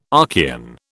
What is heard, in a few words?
"Archean", British